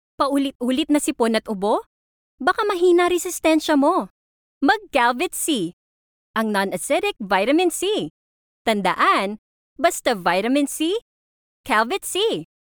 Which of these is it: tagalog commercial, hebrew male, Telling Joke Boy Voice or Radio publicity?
tagalog commercial